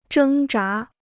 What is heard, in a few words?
"挣扎", Chinese